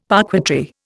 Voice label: "parquetry", Indian; Dictionary

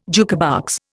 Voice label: "jukebox", Indian

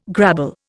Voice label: "grabble", Indian

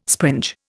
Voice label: "springe", Indian

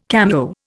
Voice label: "cameo", Indian

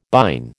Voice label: "bine", American

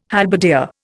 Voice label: "halberdier", Indian

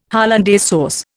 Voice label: "hollandaise sauce", Indian

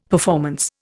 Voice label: "performance", Indian; Dictionary

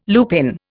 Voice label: "lupin", Indian

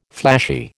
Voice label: "flashy", American

